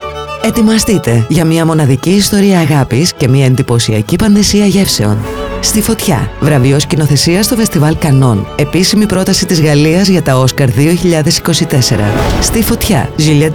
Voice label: Spot; ΣΤΗ ΦΩΤΙΑ